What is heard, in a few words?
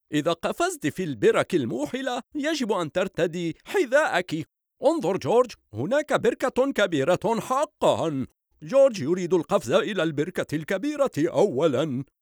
Cartoon